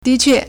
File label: "di2 que4", Chinese